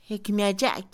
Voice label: cuento, Mixe, Mujer; Habla; INALI

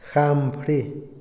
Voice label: "religión", Otomí, hombre; INALI

